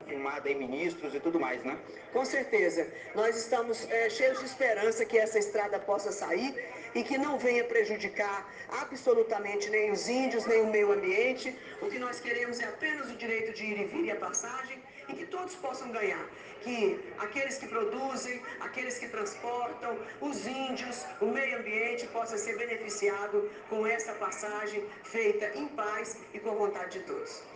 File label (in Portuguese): Senadora fala sobre Audiência para debater a TO 500; Senadora Kátia Abreu